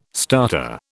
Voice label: "starter", British